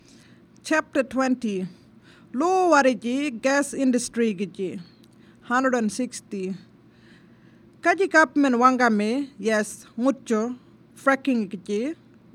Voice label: Warlpiri; Fracking